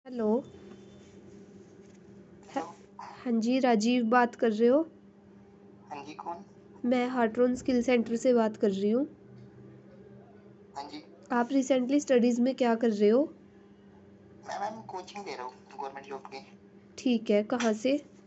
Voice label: call recording